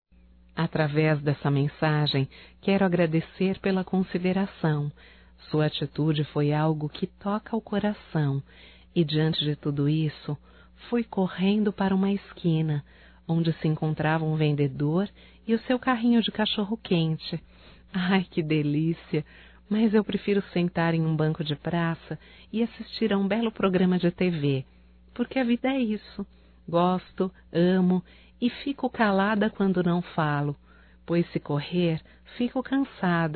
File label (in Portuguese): Gozação AGRADECIMENTO, Telemensagens Engraçadas fem; Telemensagens 2017